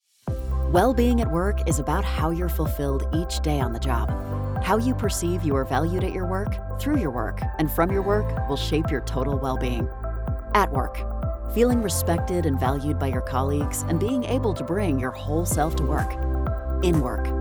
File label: e Learning